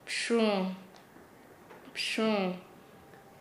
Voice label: "sombra", Nasa Yuwe; paez

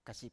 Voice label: "kasip", Biak

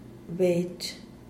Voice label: "bech", zopilote

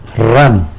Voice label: "rran", tlacolula; frog

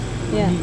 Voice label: "mani", oil, Serewen

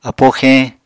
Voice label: "apó xeñ", Apatani

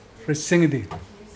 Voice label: "close", Mokilese

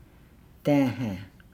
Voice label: "daahaa", Nukuoro; coconut

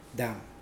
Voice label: "dam", Mokilese

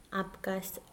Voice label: "now", Mokilese

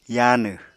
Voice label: "yaanw", Apatani; [jaanɨ] adj. rotten

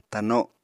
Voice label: "tano7", Apatani

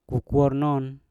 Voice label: "kokuor non", Mpur; anak ayam

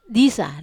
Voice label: "isa", Wamesa

